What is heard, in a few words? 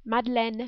"Madeleine", French